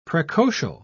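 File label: "precocial", British English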